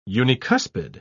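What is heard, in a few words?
"unicuspid", British English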